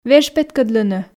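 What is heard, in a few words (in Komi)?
"веж петкӧдлыны", Komi, female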